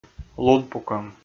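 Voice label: "лунпукӧм", Komi, male; kpv audio dict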